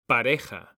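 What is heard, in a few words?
"pareja", Spanish, male; mekanta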